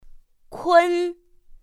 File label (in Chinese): "kūn", pinyin; pinyin2; pinyinji